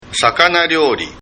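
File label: "sakanaryouri", Japanese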